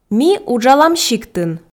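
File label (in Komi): ми уджалам сиктын, Komi, female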